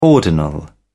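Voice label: "ordinal", English, British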